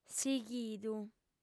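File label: "sighidu", Sardinian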